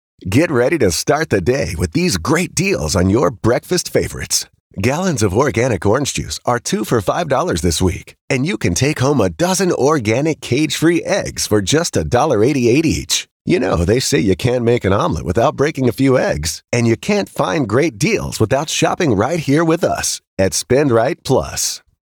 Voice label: Casual Conversational